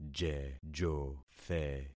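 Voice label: "jejothe", concatenated syllables; Affixing experiment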